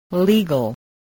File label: "legal", English